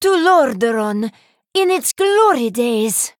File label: Female Draenei